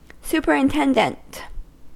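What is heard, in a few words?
"superintendent", US English; pronunciations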